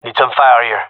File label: request fire, gendarmerie male; vo; agents